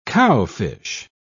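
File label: "cowfish", British English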